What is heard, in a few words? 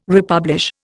"republish", Indian